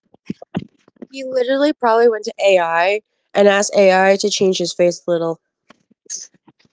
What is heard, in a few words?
voice message